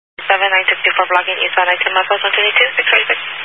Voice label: Highway Patrol, Phoenix Metro West Area, scanner

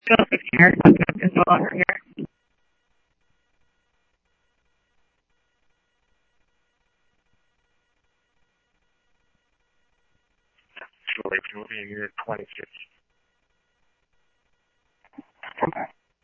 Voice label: Police, scanner; ScottsdalePolice; H-Scottsdale; SCTSDLE PD - PD2

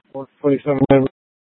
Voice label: Police Dispatch, scanner; Channel 1

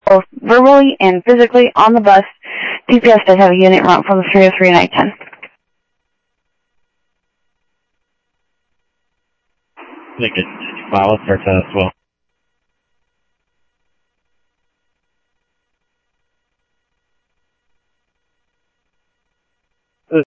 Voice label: Sheriff-WestCnty, scanner audio

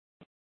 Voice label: Fire Departments, scanner; C4 Gilbert, QC, Superstition; TOPAZ; Mesa Simulcast

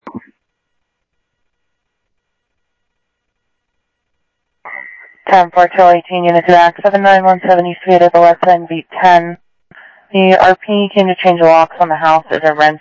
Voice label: Scottsdale PD Scottsdale Police, scanner audio

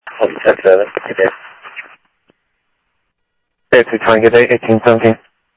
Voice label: scanner audio; Thompson Peak; DPS CI-Ctrl

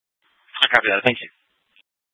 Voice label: Fire Departments Mesa Simulcast; TOPAZ

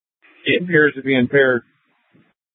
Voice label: Light Rail Ops, scanner; Light Rail Ops 1

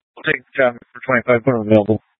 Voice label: Phx PD Fire Dispatch; RWC

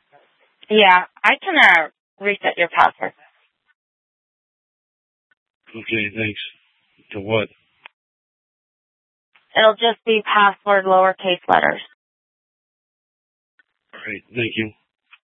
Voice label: Sheriff, Maricopa County P25; Maricopa County (P25) - Thompson Peak; Shrf Lakes

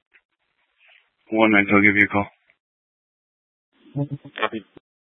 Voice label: Police Dispatch

